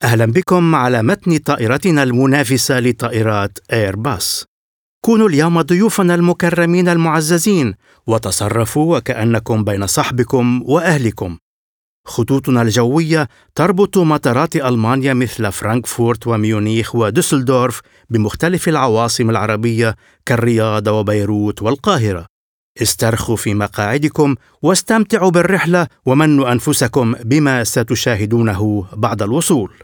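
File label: male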